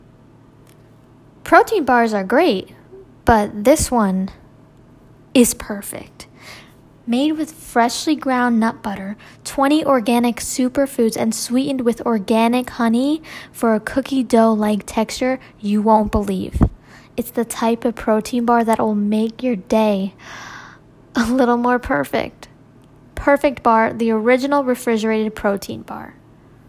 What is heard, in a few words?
voice over